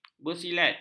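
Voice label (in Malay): "bersilat", Malay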